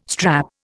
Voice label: "strap", Indian